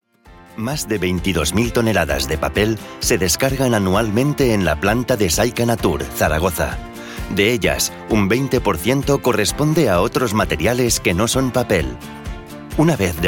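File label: European Spanish, Corporate; voice demos; Golocalise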